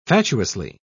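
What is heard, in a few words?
"fatuously", British English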